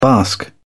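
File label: "bask", British English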